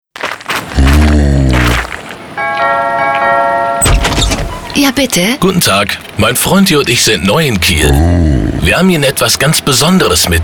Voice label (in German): Energie Radiowerbung